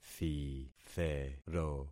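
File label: "thithero", concatenated syllables; Affixing experiment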